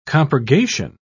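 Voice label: "compurgation", English, British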